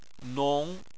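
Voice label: "nong" second tone, Chinese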